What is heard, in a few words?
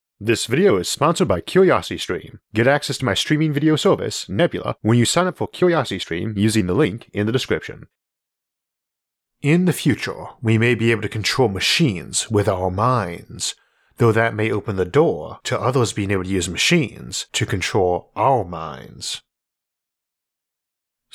brain computer interfaces narration only; isaac arthur